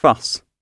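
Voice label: "fuss", British English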